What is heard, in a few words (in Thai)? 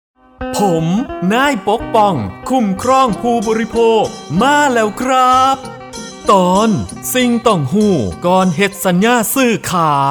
สปอตวิทยุ ภาคตะวันออกเฉียงเหนือ; สื่อประชาสัมพันธ์; สคบ.; สำนักงานคณะกรรมการคุ้มครองผู้บริโภค